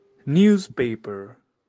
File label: newspaper, en; grade1; communication